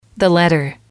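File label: "letter", English, female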